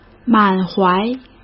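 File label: "满怀", Mandarin Chinese; hsk; chinese-flashcards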